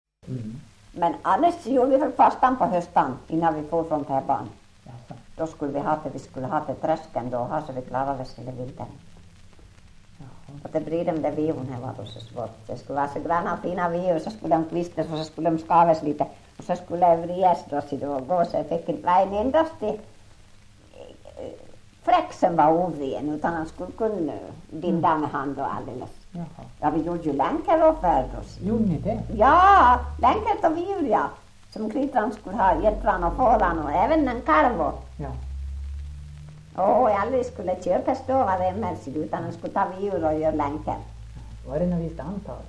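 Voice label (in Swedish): Ål Dalarna, svenska sagor dialekter; Folkminnen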